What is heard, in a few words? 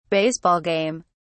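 "baseball game", British English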